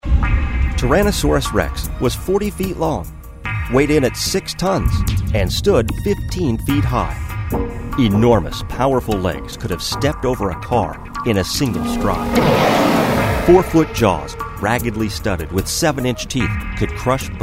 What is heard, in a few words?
narr